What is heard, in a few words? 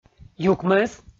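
"юкмӧс", Komi, male; kpv audio dict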